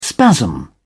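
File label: "spasm", British English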